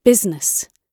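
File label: "business", British English; Oxford Audio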